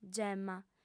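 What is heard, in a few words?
"gemma", Sardinian, female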